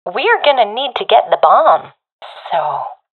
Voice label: sees dropped bomb, professional fem; vo; Counter Strike Model Pack; CS:GO